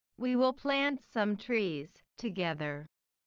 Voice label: low; four seasons